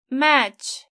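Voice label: "match", English; properties of objects new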